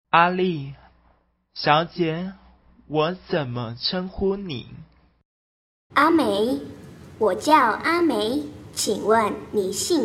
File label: dialog, mandarin